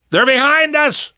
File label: watch out behind, survivor voice; namvet; Left 4 Dead 2; left4dead2 dlc2